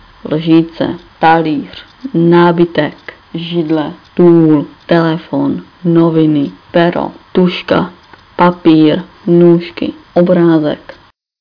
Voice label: czech